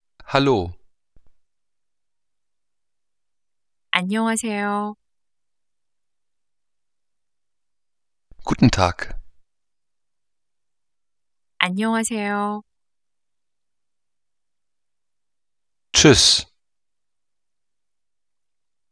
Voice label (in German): koreanisch